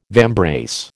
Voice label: "vambrace", American

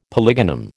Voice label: "polygonum", American

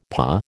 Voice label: "pas", American

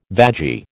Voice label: "vagi", American

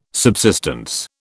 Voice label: "subsistence", British

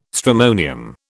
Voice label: "stramonium", British

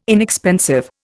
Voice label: "inexpensive", Indian

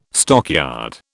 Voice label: "stockyard", British

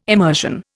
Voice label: "emersion", Indian

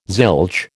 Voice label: "zilch", American

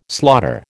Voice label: "slaughter", American

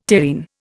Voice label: "terrene", Indian

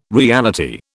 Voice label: "reality", British

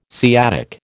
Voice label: "sciatic", American

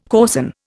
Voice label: "coarsen", Indian

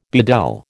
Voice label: "bedel", American

